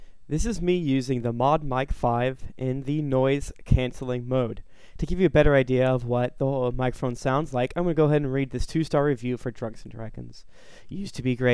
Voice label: mic test